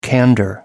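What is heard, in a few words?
"candor", British English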